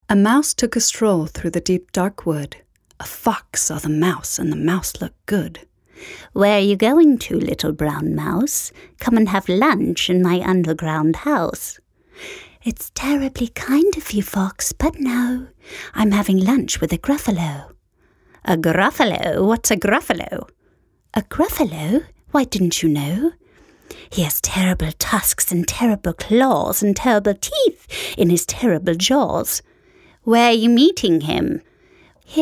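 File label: us englisch, US English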